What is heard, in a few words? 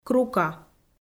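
"крука", Komi, female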